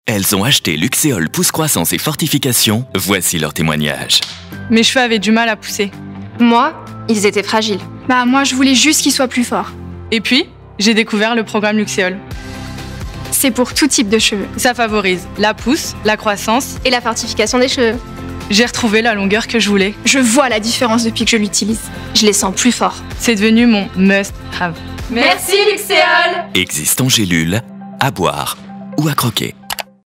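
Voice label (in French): rassurant chaleureux, voix off publicite, homme; LUXEOL; informatif, rassurant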